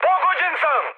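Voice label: Serbian aircraft damaged mood high, speech; War Thunder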